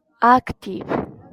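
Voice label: "active", Japanese, female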